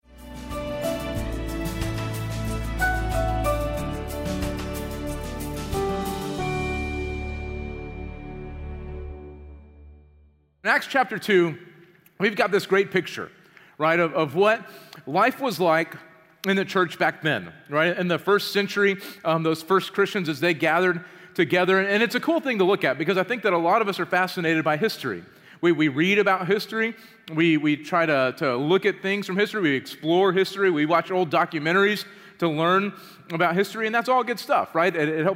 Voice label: Sermon English